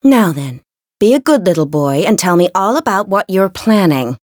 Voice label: Voice story